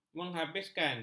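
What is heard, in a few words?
"menghabiskan", Malay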